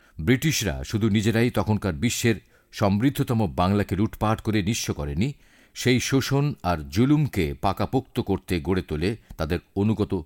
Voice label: narration bangla

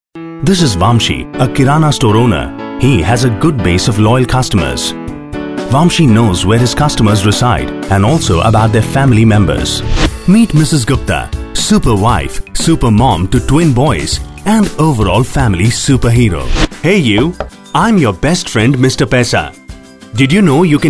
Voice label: Explainer English

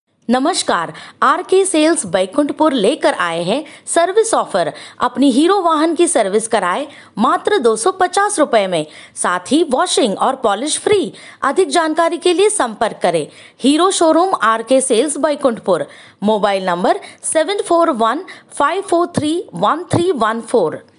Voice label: Hindi

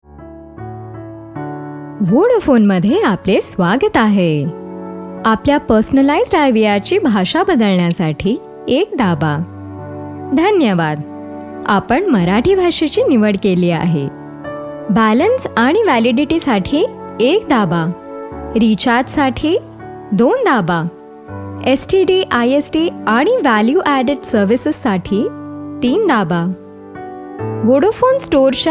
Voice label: ivr marathi; Sharp, Baritone voice texture, Pleasant, professional and authoritative, warm and caring; vodafone

